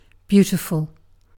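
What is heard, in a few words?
"beautiful", British English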